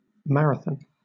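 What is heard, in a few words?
"marathon", British English; pronunciations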